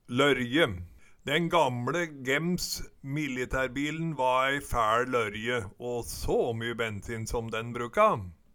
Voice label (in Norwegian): lorje, Numedalsmål